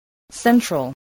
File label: "central", English, voice